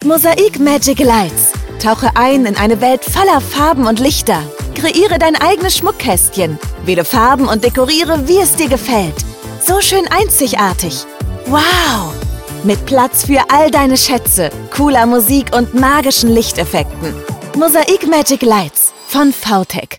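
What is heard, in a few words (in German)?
Werbung, Commercial, German, Akzent: Russian; Vtech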